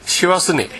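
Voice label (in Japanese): "sewasune", 南山形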